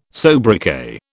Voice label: "sobriquet", British